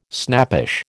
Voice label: "snappish", American

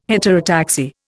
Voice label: "heterotaxy", Indian

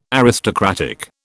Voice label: "aristocratic", British

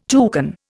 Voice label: "toucan", Indian

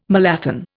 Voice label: "malathion", Indian

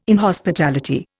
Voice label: "inhospitality", Indian

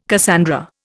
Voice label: "Cassandra", Indian

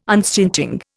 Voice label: "unstinting", Indian

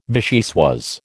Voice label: "vichyssoise", American

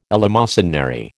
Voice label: "eleemosynary", American

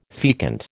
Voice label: "fecund", American

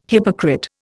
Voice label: "hypocrite", Indian

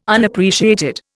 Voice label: "Unappreciated", Indian

